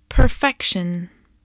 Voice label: "perfection", English, voice

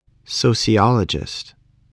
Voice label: "sociologist", English voice

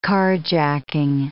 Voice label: "carjacking", English

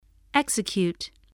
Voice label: "execute", voice, english

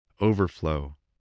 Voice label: "overflow", English voice

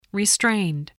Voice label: "restrained", English

